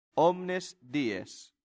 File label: "omnes dies", latin; santillana